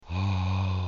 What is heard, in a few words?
breathy